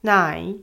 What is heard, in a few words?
"奶", Chinese